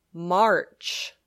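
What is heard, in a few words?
"March", English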